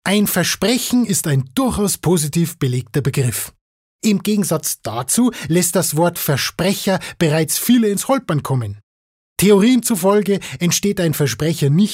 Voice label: Versprecher Bayerisch

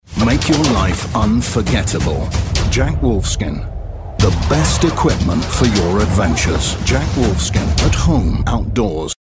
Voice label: Eng UK Neutral

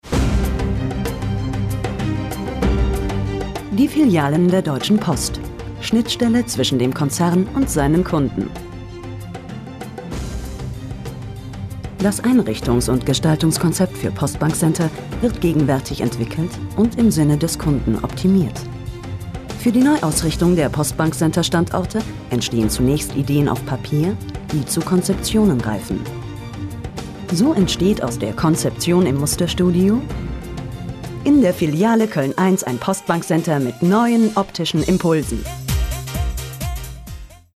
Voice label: Neutral

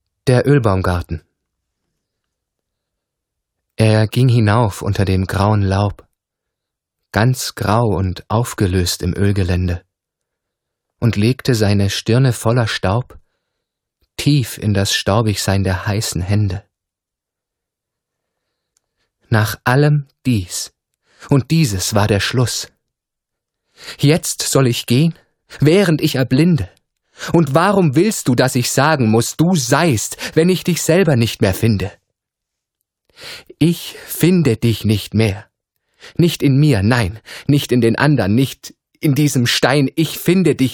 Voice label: Neutral

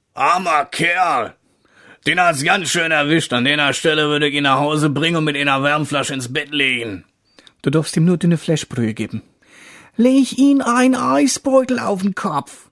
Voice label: Schauspiel Variabel

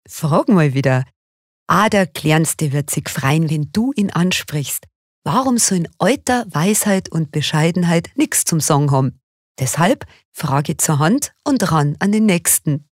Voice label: Dialekt Bayerisch

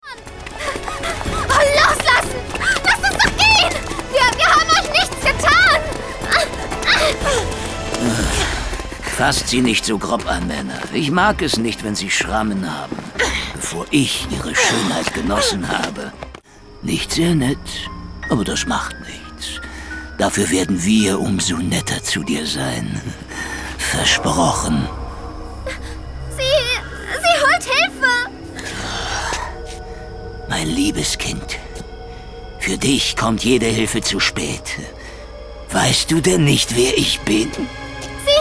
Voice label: Fremdtake Aufgebracht

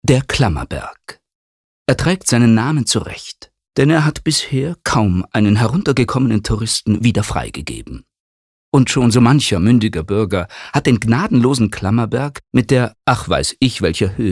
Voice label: Kommentar Neutral

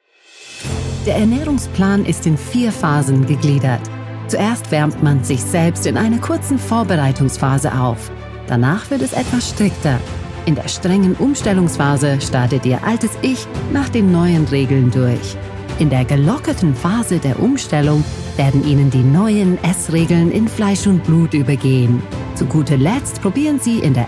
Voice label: Freundlich

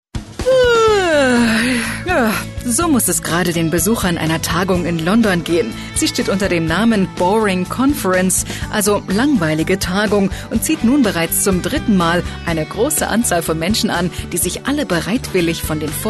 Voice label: Kommentar Lebendig

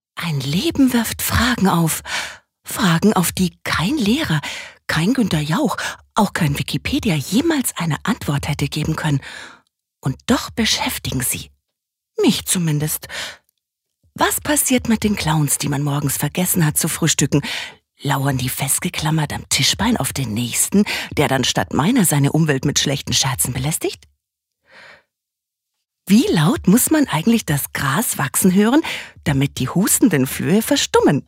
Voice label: Schauspiel Sanft

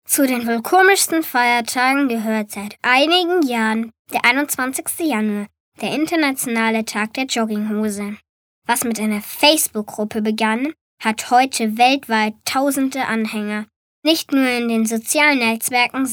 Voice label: Feiertag Neutral

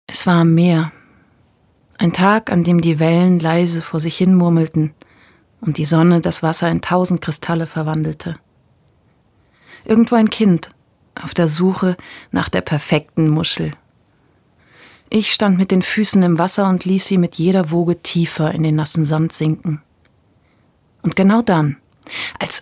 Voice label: Schauspiel, Sanft

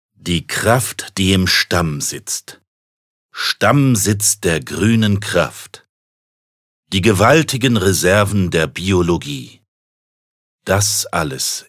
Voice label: Werbung Kraftvoll

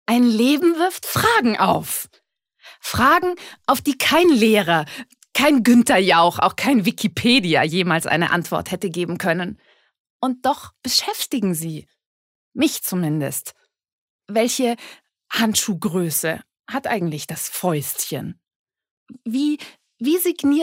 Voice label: Schauspiel dynamisch

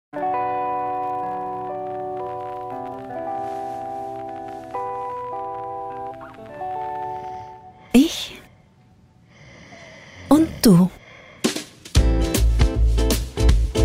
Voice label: Fremdtake Sinnlich

